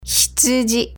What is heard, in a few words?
"hitsuji", Japanese